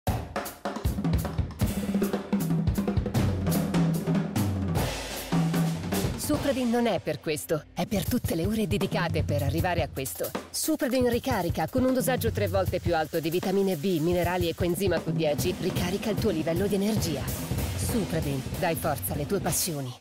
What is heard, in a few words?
italian commercial